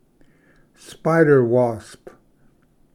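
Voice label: "spider wasp", English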